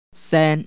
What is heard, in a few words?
sound, cantonese